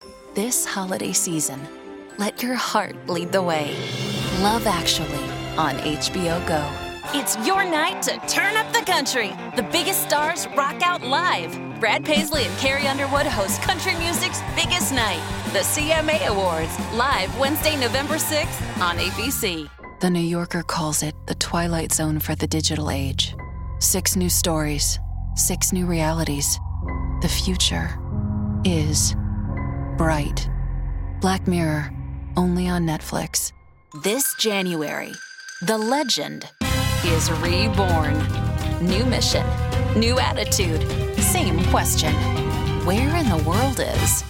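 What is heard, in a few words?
Promo, Female Voice Over; American Female Voice Actor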